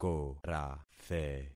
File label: "korathe", concat syllables; Affixing experiment